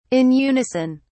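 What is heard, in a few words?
"in unison", British English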